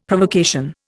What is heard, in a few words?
"Provocation", Indian; Dictionary